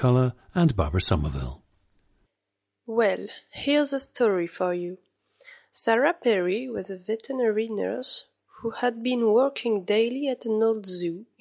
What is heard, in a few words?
france, dialects